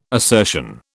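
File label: "assertion", British English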